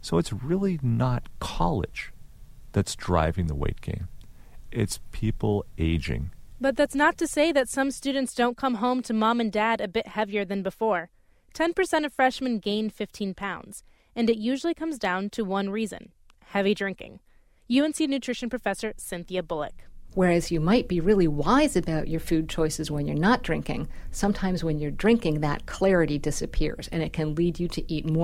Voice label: Radio newsreporting